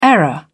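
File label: "error", British English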